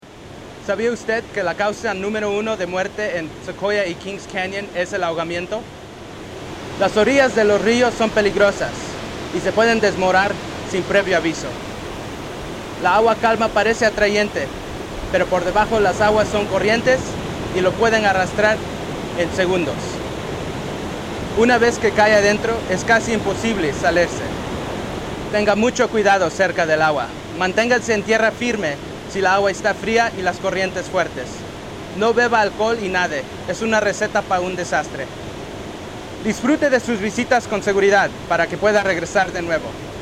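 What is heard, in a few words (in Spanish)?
Water Safety Spanish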